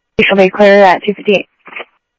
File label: scanner audio trunk